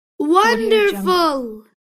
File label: boy says wonderful word